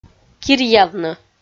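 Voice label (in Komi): "киръявны", Komi, female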